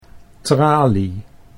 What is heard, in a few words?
"tralie", Dutch